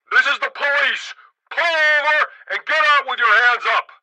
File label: police megaphone; Blind Drive